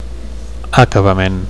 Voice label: "acabament", Catalan